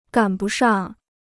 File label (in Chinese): "赶不上", Chinese